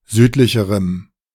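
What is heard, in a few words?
"südlicherem", German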